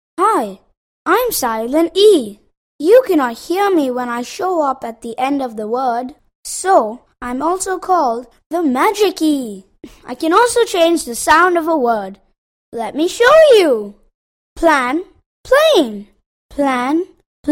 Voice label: Little Boy